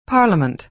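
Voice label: "parliament", American English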